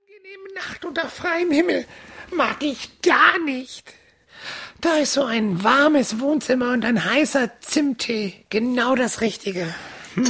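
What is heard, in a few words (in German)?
Hörspiel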